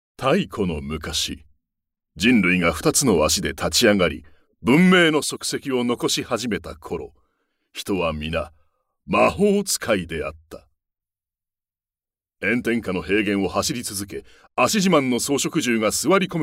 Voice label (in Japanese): ナレーション